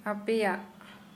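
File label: yalls, Santali, female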